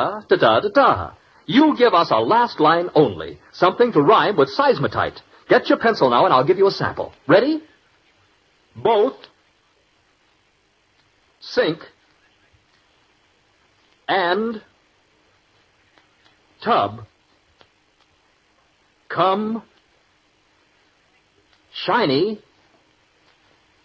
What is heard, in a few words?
Dutch Cleanser, Old Radio Ads